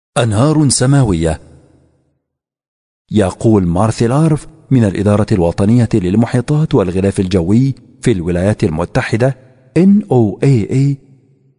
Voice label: Arabic M